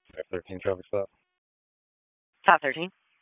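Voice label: Raleigh Police Southeast District Dispatch, p25; wake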